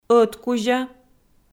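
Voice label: "ӧткузя" Komi, female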